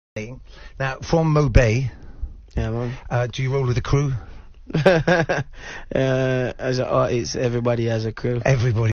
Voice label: accent; ryging